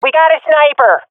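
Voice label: sees sniper, swat female; vo; Counter Strike Model Pack; CS:GO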